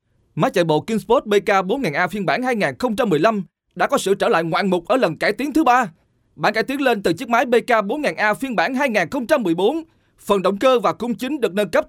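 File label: Vietnamese Vietnam male sales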